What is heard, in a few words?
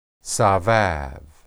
"sabab", Hebrew